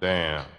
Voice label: "damn", expletives

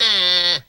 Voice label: uh, cartoon; ooh ahh; uh um

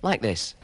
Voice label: "like this", male

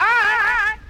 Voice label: "ay", voice

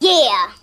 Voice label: "yeah" cartoon, voice

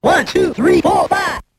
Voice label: 1 2 3 4 5 eight bit male; Voice; numbers and letters

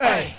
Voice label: "hey" male

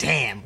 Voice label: "damn", expletives

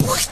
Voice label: "pwsh" male; human

